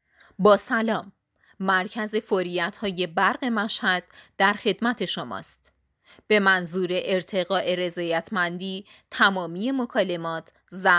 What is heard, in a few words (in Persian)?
صدای خانم